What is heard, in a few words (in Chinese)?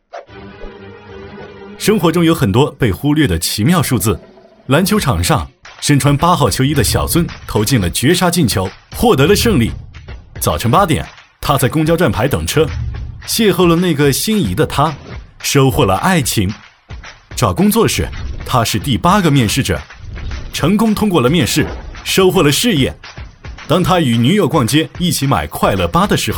男 MG 快乐 周年庆, 抒情温暖 自然诉说; 男D6-MG：快乐8周年庆